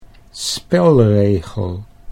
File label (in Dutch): "spelregel", Dutch